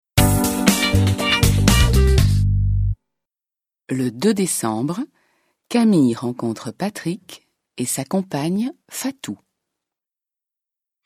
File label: French